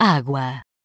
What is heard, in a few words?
"água", Portuguese